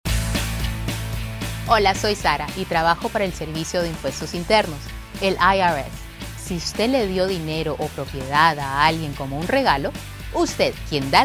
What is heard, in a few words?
Gift Tax Spanish; Eight Tips to Determine if Your Gift is Taxable